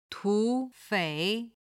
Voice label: "tu3 fei3", chinese